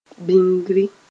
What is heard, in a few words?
"bĩngri", Mooré; savings